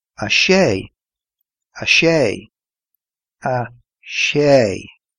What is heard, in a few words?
six irish